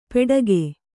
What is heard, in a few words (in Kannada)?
"ಪೆಡಗೆ", Kannada